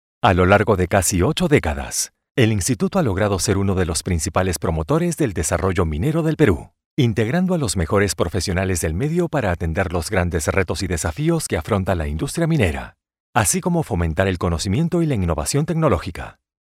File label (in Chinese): 西班牙语